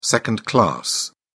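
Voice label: "second class", British English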